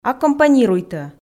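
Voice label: "аккомпанируйтӧ", Komi, female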